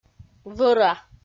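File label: "вӧра", Komi, female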